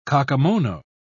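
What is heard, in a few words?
"kakemono", British English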